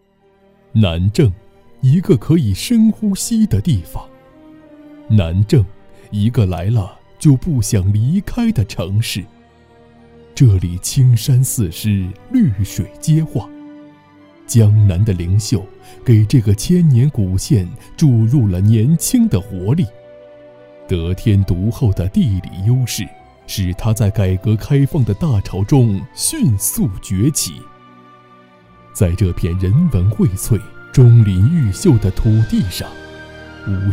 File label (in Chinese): 男 专题 南郑国土资源局